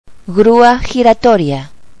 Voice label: "revolving crane", Spanish